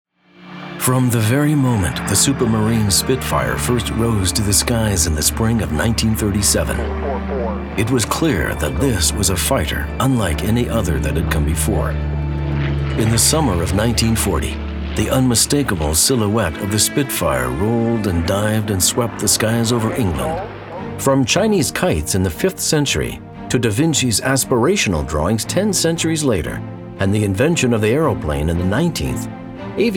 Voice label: Documentary Narration